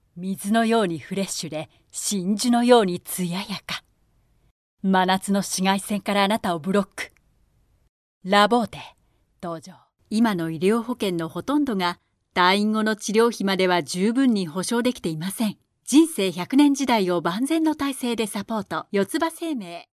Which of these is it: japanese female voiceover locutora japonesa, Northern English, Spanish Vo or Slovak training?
japanese female voiceover locutora japonesa